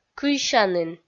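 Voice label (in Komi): "кыйсянін", Komi, female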